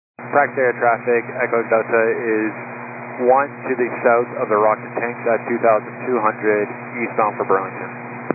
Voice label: 122 925MHz, scanner radio